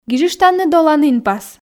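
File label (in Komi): "гижыштанныд оланінпас", Komi, female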